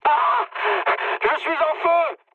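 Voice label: French on fire mood high; War Thunder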